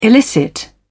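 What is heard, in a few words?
"illicit", British English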